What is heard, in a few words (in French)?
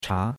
"cha2", chinois; Cours-de-Chinois